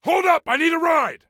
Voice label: Need Ride, Soldier Male; Soldier Male 03